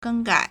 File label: "geng1 gai3", Chinese